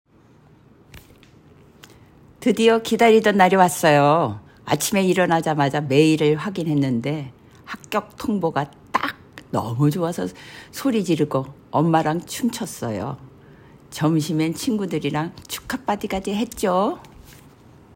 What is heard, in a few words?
Old Female Happy, korean voice emotion